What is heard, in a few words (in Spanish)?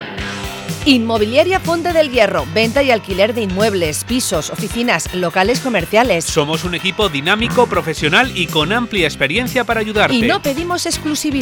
publicidad radio